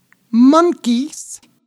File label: "monkeys", English